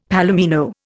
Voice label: "palomino", Indian; Dictionary

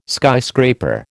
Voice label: "skyscraper", American

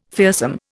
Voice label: "fearsome", Indian

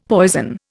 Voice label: "poison", Indian; Dictionary

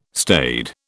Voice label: "staid", British

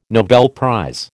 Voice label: "Nobel prize", American

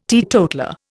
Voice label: "teetotaller", Indian

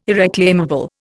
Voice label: "irreclaimable", Indian

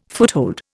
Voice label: "foothold", Indian

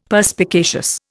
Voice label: "Perspicacious", Indian; Dictionary

